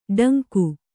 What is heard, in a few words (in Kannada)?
"ಡಂಕು", Kannada